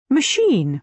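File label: "machine", British English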